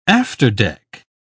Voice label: "afterdeck", uk